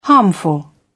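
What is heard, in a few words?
"harmful", British English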